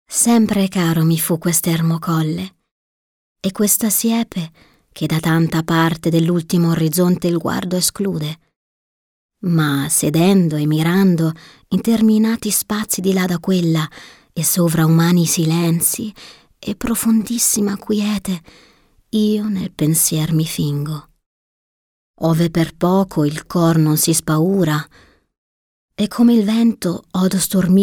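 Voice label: Italian, Schauspiel Sanft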